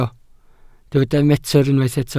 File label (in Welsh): "metr", Welsh